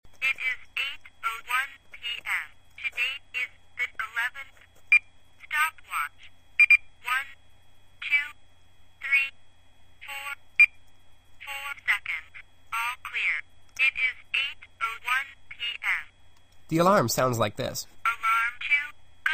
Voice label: deluxe talking watch